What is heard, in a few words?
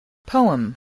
"poem", English